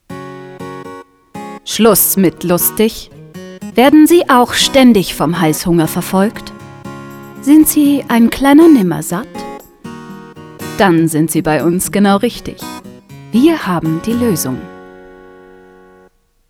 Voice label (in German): Spot